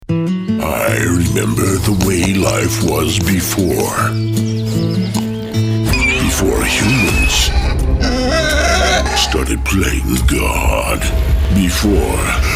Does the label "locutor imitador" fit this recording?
No